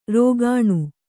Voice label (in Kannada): "ರುಗ್ಣ", Kannada